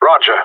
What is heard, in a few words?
affirmative, radio; GSG9